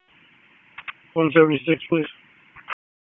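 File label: Police Northeast District Dispatch, p25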